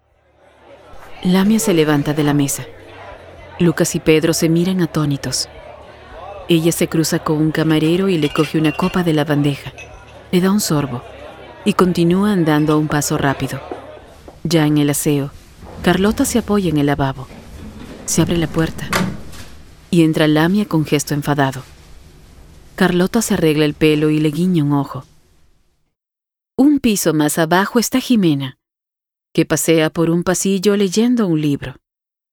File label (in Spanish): audio descripcion